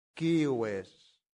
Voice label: "cives", latin; santillana

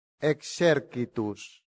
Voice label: "exercitus", Latin; santillana

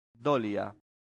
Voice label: "dolia", latin; santillana

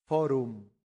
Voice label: "forum", latin; santillana

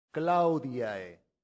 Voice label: "claudiae", latin; santillana

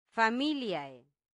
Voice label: "familiae", latin; santillana

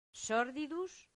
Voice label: "sordidus", Latin; santillana

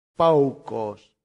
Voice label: "paucos", latin; santillana

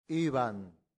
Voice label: "ibant", latin; santillana

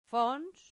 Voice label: "fons", Latin; santillana

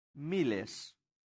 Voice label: "miles", latin; santillana

